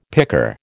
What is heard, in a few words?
"picker", American